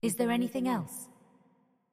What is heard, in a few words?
neutral reply; machineage; synthqueen; act02 player initiated conversation; crisis